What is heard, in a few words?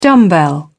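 "dumbbell", British English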